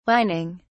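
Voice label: "wining", British English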